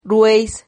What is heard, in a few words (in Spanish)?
"rueis", Silabas